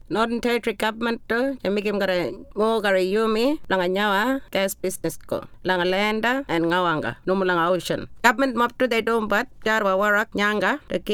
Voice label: ngarinyman